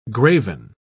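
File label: "graven", British English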